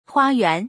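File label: "hua1yuan2", Mandarin Chinese; 花园; huāyuán; 庭、花園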